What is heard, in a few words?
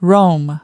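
"Roam", American English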